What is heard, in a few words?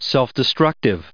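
"self-destructive", English, us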